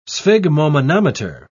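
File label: "sphygmomanometer", British English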